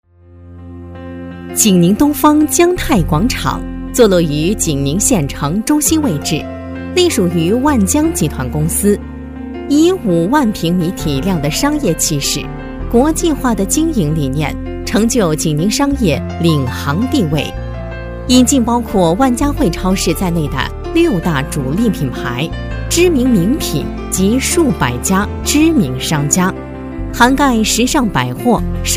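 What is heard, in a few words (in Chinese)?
女-宣传广告【成熟大气】; 济宁东方江泰广场; 成熟厚重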